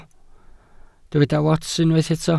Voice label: "wats", Welsh